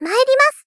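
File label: Polite, Critical, "Mairi masu", Japanese female; Rei Yumesaki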